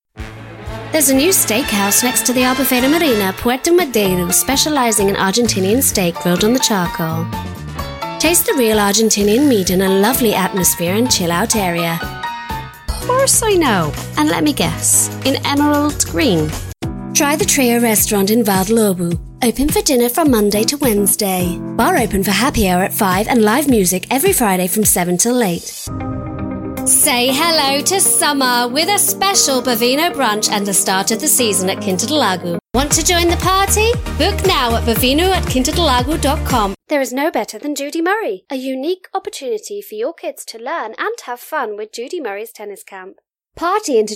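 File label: English British, female, commercial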